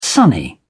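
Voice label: "sunny", English, uk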